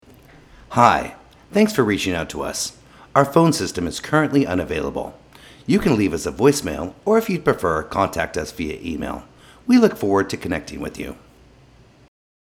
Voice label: Temporary Voicemail